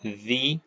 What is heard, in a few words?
short voyels arabic alphabet